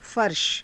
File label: "farsha", Hindi; फ़र्श; [男]床